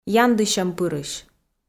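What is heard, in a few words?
"яндысьӧмпырысь", Komi, female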